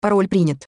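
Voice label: Russian Password Accepted; EFI Audio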